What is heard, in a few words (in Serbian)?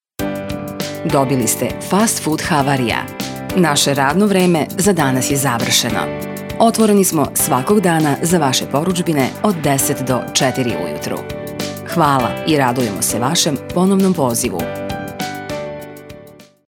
glas audio telefon obevestenje